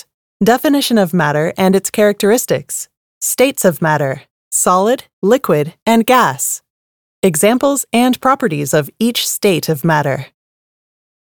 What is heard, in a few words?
E-learning Narration Physics